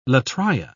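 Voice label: "latria", British English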